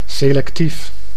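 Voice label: "selectief", Dutch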